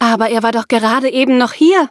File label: loading, de; Cookie Run